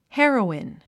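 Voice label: "heroine", English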